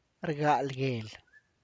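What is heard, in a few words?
tlacochahuaya, Zapotec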